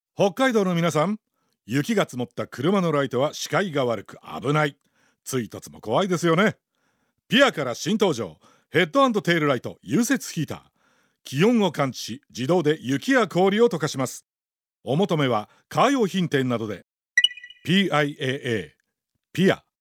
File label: Radio CM; PIAA; SMH